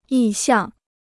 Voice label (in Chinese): "意向", Chinese